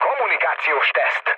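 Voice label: chat, speech hungarian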